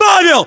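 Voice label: "pavel", battlechatter, Russian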